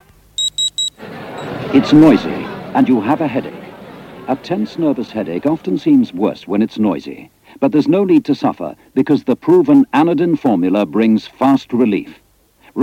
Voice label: Radio Hallam Advert; Anadin